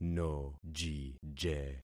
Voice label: "nojije", concat syllables; Affixing experiment

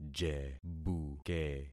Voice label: "jebuke", concat syllables; Affixing experiment

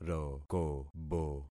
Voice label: "rokobo", concat syllables; Affixing experiment

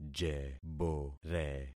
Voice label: "jebore", concat syllables; Affixing experiment

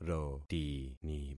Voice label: "rotini", concat syllables; Affixing experiment

